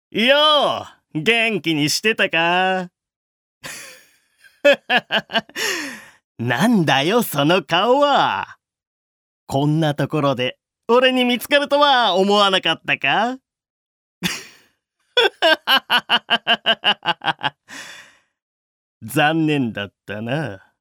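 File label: seikaku male warumono choiwaru; 悪者・ちょい悪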